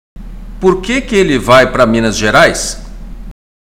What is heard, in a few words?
Portuguese Listening; Portuguese Test